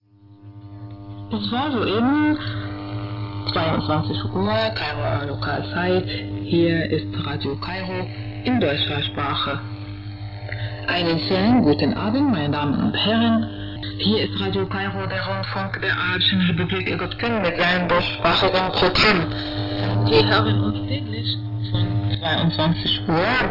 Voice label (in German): Ansage DE 9410 kHz; RadioKairo